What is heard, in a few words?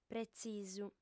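"pretzisu", female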